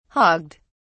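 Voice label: "hogged", British English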